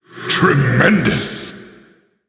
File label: Male Orc WOW